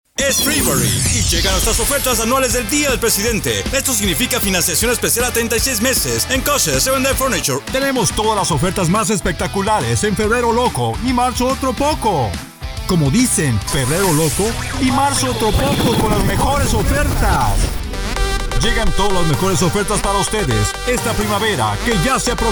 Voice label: Male